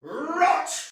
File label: Attack, Soldier Male; Sinecure